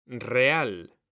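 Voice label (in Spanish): "real", male; mekanta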